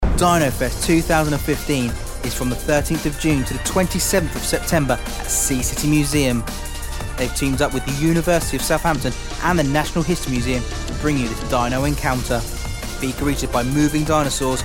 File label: voice over